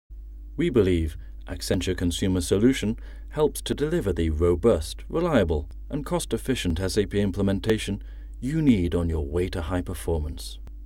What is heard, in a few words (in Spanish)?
voz video corporativo locutor ingles britanico nativo locuciones voz